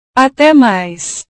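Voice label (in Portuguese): "ate mais", Portuguese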